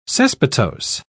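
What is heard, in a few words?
"cespitose", British English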